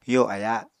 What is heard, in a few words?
"yo aya", Apatani